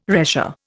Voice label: "retia", Indian